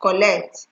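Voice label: "collect", Nigerian Pidgin, female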